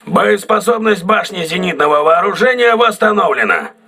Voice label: voice message aa turret repaired, russian